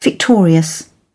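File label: "victorious", British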